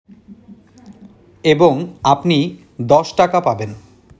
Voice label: male